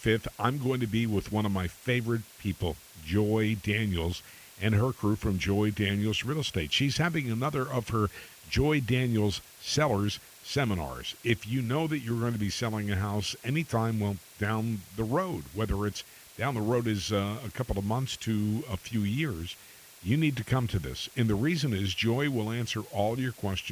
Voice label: Radio Spot